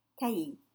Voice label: "taillis", French